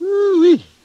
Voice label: woo wee, old man; ooh ahh